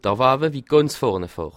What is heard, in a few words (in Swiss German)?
"vorne", alsace